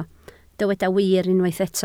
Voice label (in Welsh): "wir", Welsh